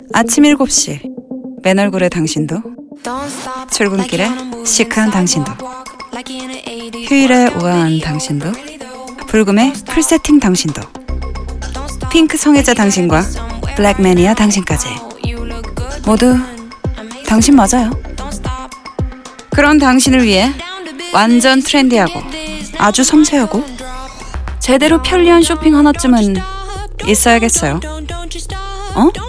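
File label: English; 소니ZV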